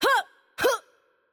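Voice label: Hurt Heavy, Mexican Spanish; es MX; VO; bloom minigame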